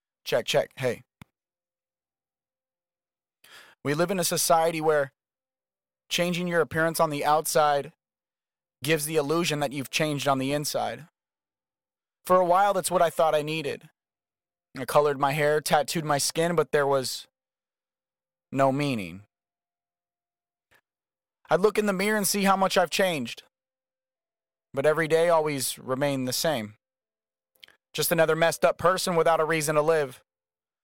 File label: spoken word 40 Abm, Acapellas